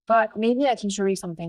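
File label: Voice Acting es, female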